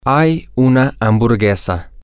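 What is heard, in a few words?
Spanish, there is a hamburger, male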